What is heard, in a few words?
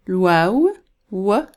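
"waw", Arabic letter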